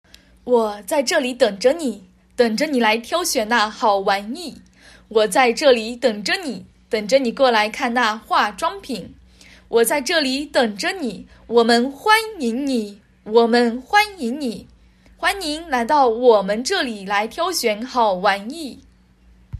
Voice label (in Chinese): 促销广告